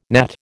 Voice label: "gnat", American